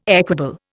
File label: "equable", Indian